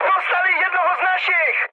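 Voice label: aircraft destroyed, Czech, mood high; War Thunder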